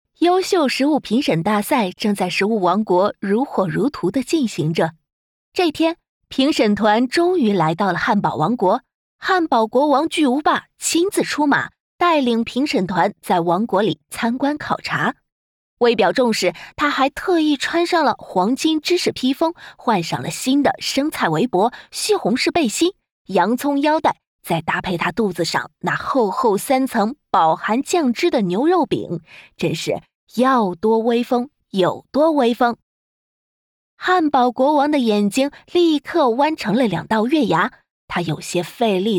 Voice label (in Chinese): 旁白 儿童故事